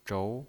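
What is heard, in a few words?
"zhou" Mandarin Chinese pinyin voice, second tone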